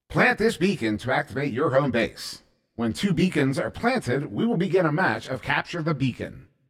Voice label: Plant This Beacon, Announcer